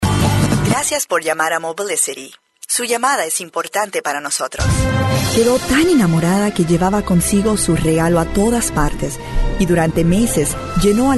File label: English Spanish, voice talent